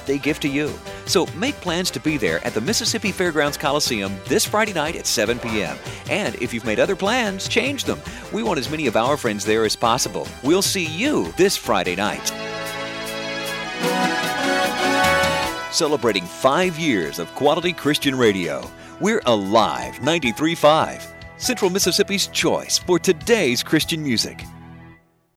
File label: Concert Promo